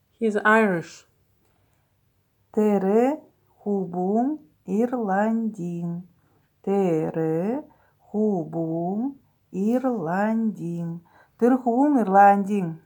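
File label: Buryat He is Irish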